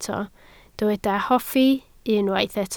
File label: "hoffi", Welsh